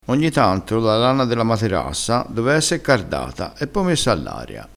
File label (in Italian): "materassa", crespinese, vocabolario dialettale